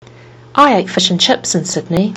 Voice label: i ate fish and chips in sydney, kiwi english